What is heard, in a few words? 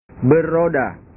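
"beroda", Indonesian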